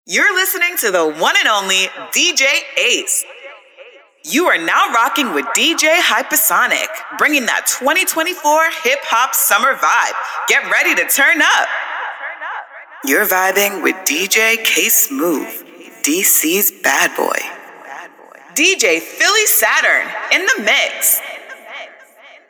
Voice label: Urban female DJ drops